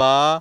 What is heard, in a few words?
"ba", consonants